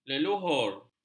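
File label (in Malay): "leluhur", Malay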